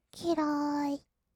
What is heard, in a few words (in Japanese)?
「きらーい」, kawaii voice; 1200 kawaii voice!!; 色々なワンショット